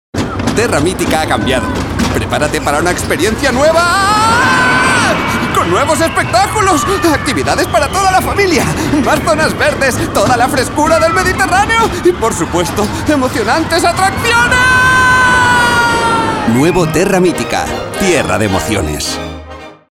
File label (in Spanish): RADIO GENERICA; TERRA MITICA